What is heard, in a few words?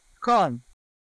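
Voice Acting es, female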